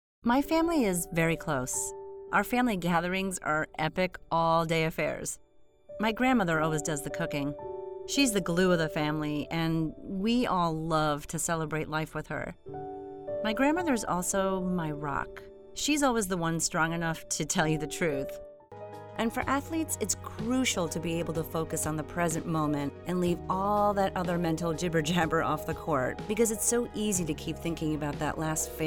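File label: real person voiceover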